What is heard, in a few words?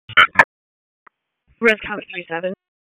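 EMS Dispatch 1, P25; wake p25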